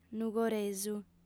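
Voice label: "nugoresu", female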